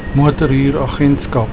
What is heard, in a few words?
"motorhuuragentskap", Afrikaans